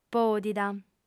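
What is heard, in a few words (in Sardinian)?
"pòdida", female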